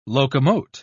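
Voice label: "locomote", English, British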